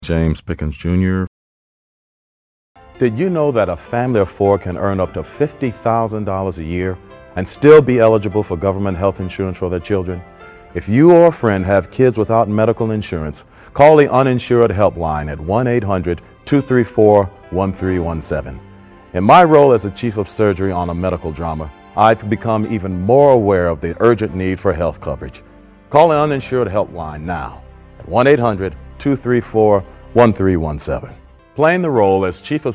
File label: commercial; Innovative LA